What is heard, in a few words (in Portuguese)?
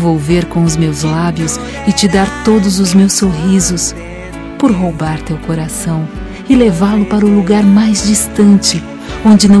ROM SINTO MUITO POR TE AMAR FEM